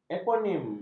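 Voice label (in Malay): "eponim", Malay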